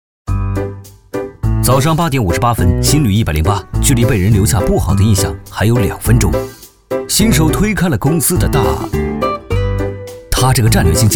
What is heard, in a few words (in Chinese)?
男 旁白 搞怪